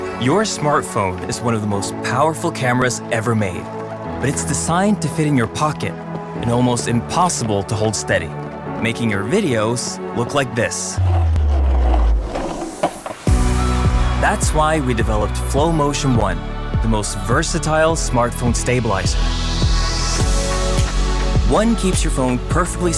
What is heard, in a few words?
male norwegian transatlantic accent corporate; flowmotion one; Devine Voices